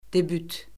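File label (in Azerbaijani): "debüt", Azerbaijani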